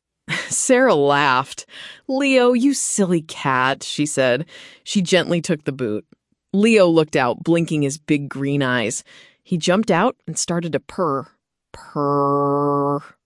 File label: narration; o gato no sapato; historia en A2